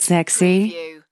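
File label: Female Says Sexy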